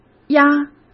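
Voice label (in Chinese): "押" Mandarin Chinese; chinese-flashcards; hsk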